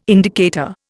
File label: "indicator", Indian